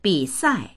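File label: "bi sai", Mandarin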